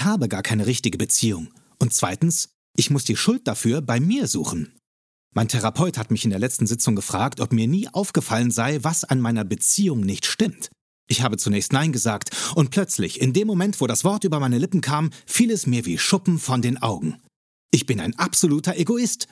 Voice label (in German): Lesung Tagebuch, Audiobook (Hörbuch), Tale (Erzählung), Comedy, German